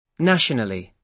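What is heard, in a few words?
"nationally", British English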